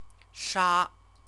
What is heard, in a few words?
"sha", Chinese; CTCFL; University of Oxford